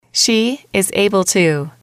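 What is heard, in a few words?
she is able to can, English, female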